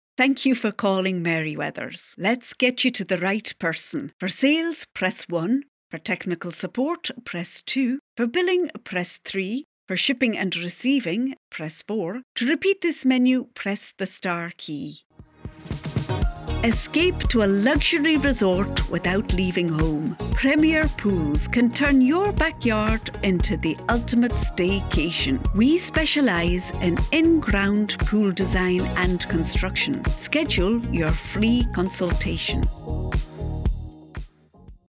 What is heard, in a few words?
IVR On-Hold Scottish; AdvantageIVR